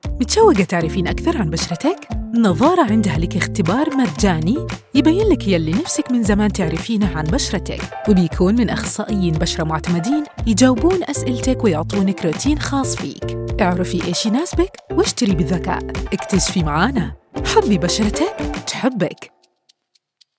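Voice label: Khaliji, Gulf, or Saudi Skincare, Accents and Dialects, Commercial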